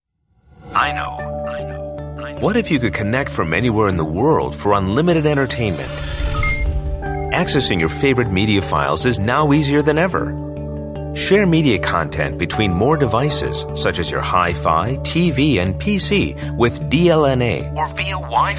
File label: usa man